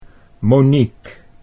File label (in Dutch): "Monique", Dutch